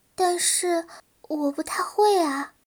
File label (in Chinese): 攻击, BattleCry; 南宫五月